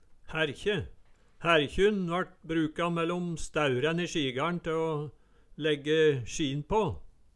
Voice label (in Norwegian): hærkje, Numedalsmål